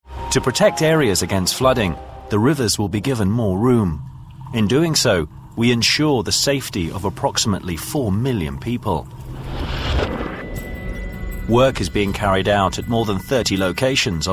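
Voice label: British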